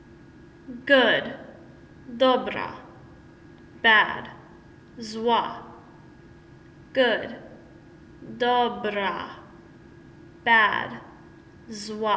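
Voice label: Good Bad, Polish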